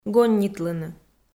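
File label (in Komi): "гоннитлыны", Komi-Zyrian, female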